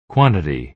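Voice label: "quantity", American English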